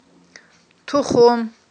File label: "тухум", Aghul